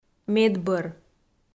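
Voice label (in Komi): "медбӧр", Komi, female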